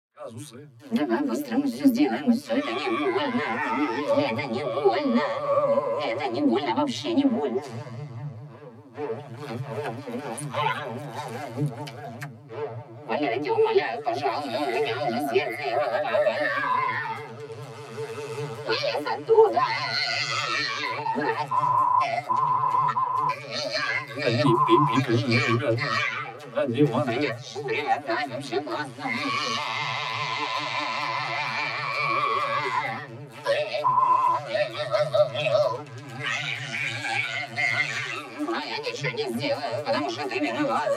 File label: Elderly